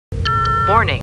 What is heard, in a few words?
Star Trek Computer Voice